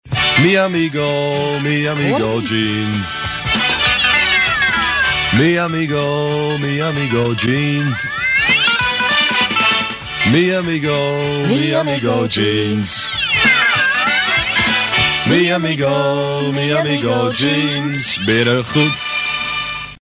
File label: commercial